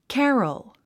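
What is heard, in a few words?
"carol", English word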